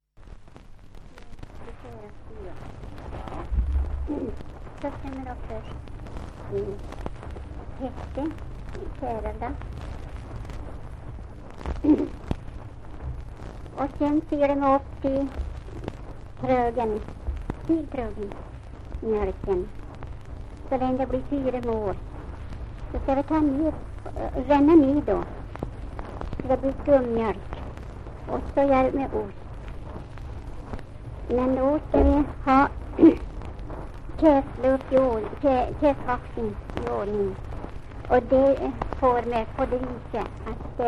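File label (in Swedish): Linsäll Hälsingland, dialekter; Folkminnen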